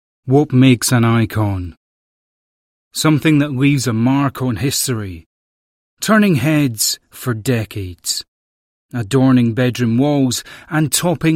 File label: english british narration